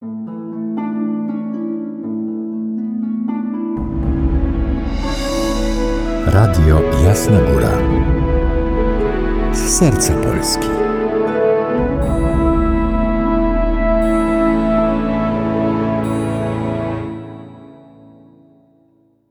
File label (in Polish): ogolny lektor z serca